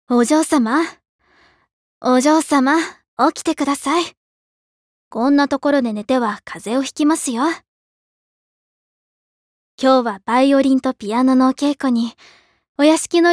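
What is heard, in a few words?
reliable maid, Japanese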